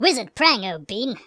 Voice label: "flawless", speech; Biggles